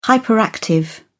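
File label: "hyperactive", British English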